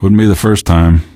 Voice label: answer, male; Garry's Mod; hl2

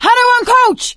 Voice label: hunter pounced, survivor voice; Left 4 Dead 2; producer; coach

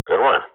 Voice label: radio bot nice shot, Counter-Strike swat; Global Offensive; player vo

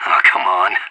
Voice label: scared emote; Counter-Strike Global Offensive

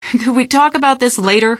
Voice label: answer, female; Garry's Mod; hl2

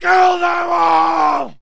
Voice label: man insult; Mount&Blade Warband

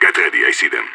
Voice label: radio enemy spotted; idf

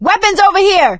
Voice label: spot weapons, survivor voice; Left 4 Dead 2; producer

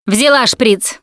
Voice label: adrenaline, russian; Producer

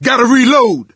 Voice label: reload intense, survivor voice; Left 4 Dead 2; coach

